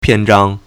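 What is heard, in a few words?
"pian1zhang1", Mandarin Chinese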